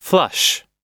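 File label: "flush", English; oxford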